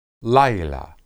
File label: "laylah", Hebrew